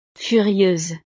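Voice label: "furieuse", French; estados animo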